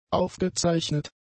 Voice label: "aufgezeichnet", German; adjectives